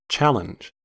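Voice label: "challenge", American English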